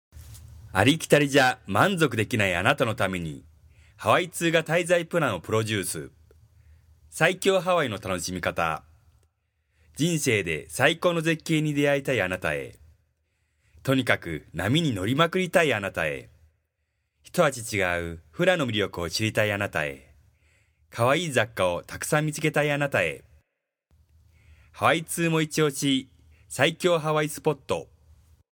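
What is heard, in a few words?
Japanese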